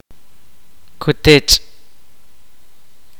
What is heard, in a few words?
kaqchikel; Somersault